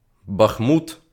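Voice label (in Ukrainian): "Бахмут", Ukrainian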